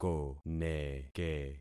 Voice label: "koneke", concat syllables; Affixing experiment

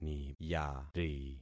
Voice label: "niyari", concat syllables; Affixing experiment

